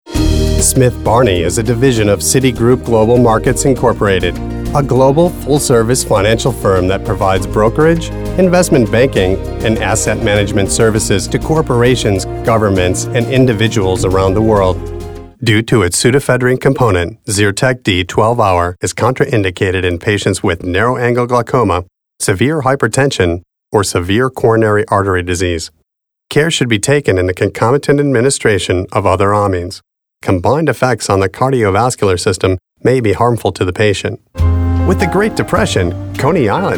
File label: narrative